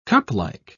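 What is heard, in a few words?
"cuplike", British English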